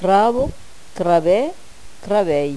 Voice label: "craba crabeir", Gascon

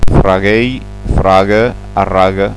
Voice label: "fragueir fraga ahraga", Gascon

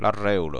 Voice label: "larreula", gasconha

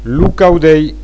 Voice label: "caudeir", Gascon

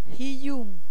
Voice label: "hilhon", Gascon

